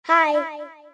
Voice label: hi scary